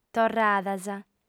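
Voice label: "torradas", Sardinian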